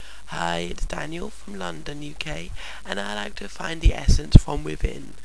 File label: croaky